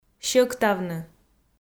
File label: "сьӧктавны", Komi